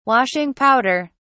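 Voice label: "washing powder", British English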